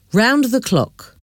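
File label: "round the clock", English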